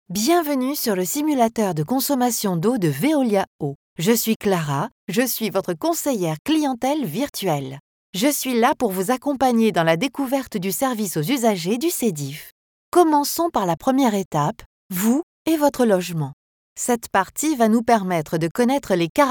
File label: French